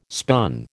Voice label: "spun", American